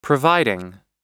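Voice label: "providing", American English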